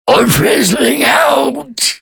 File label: low; Awesomenauts